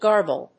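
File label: "garble", English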